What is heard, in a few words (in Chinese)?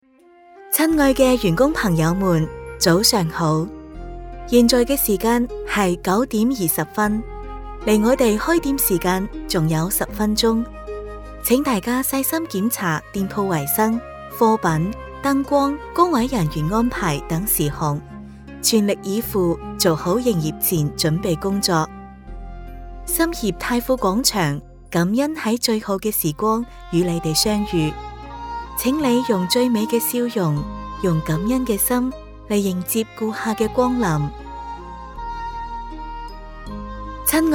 粤语广播粤语提示广播词